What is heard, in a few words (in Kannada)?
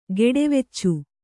"ಗೆಡೆವೆಚ್ಚು", Kannada